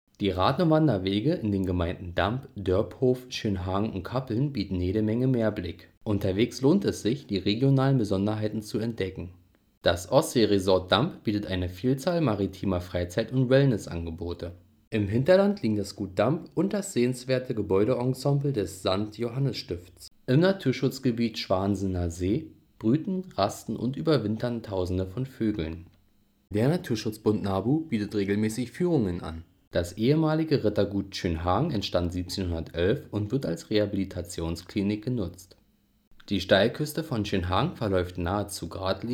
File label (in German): sprachtext audioguide